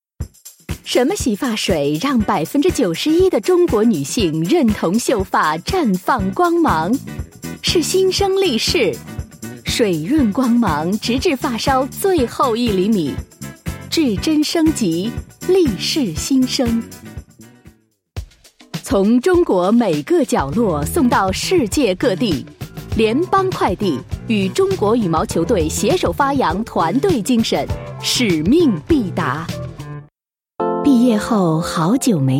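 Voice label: Chinese Mandarin, female, commercial; voice over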